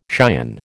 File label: "cion", American